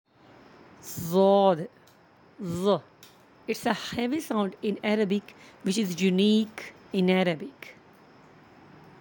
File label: "daad", Arabic; ض